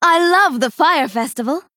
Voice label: Female Dryad